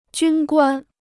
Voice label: "军官", Chinese

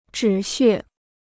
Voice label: "止血", Chinese

